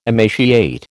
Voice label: "emaciate", American